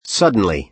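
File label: "suddenly", American English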